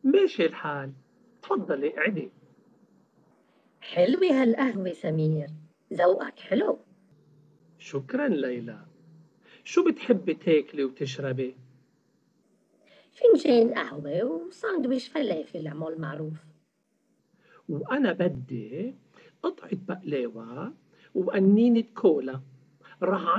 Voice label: dialogue, Arabic MSA